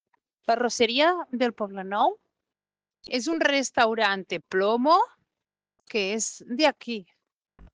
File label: Voice message